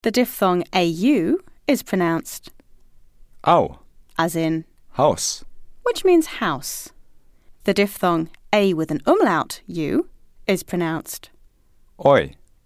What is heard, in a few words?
German Diphtongs